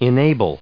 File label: "enable", us